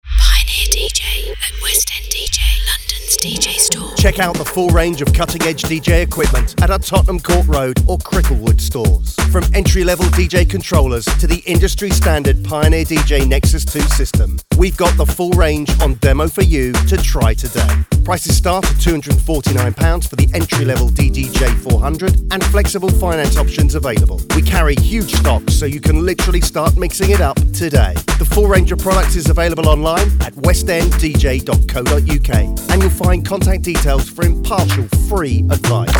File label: Advert WIth FEMALE End; Pioneer March